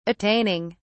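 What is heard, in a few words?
"attaining", English, British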